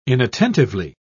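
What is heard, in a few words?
"inattentively", British English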